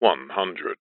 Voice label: aircraft plane cockpit voice says "100"